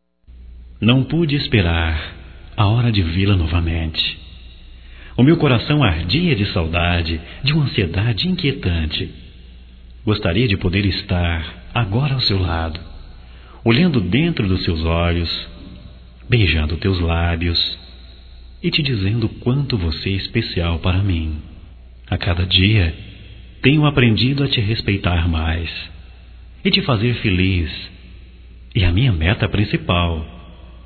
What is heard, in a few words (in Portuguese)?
BOA TARDE ROMâNTICA, masc; Bom dia, tarde e noite; Telemensagens 2017